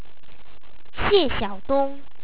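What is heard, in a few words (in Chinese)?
"xie xiaodong", Chinese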